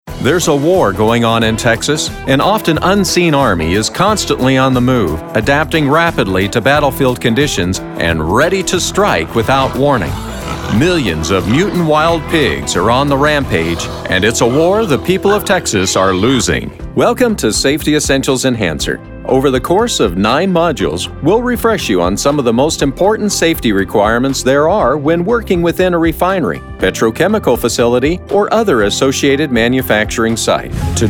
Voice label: narration